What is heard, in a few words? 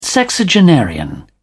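"sexagenarian", British English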